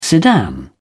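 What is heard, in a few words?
"sedan chair", British English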